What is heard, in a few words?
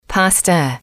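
"pasta", English, female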